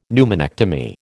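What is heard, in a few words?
"pneumonectomy", American English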